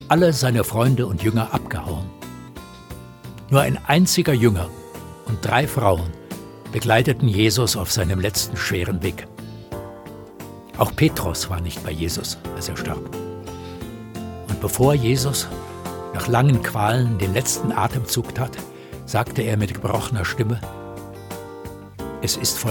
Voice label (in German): Erzaehler